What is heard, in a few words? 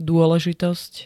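"dôležitosť", Slovak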